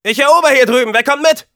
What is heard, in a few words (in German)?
Scout help me capture, de